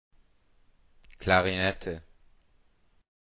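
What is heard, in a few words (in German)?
"Klarinette", German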